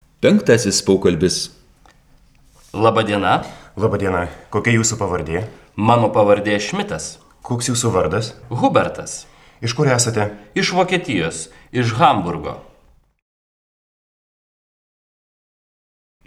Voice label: Dialog